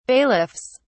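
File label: "bailiffs", British English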